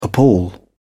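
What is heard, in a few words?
"appal", British English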